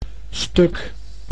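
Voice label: "stuk", Dutch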